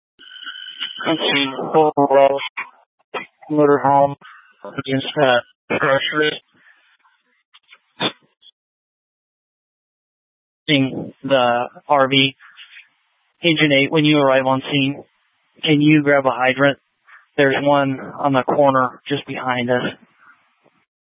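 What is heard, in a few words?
Incident Tac 1, Scanner audio recording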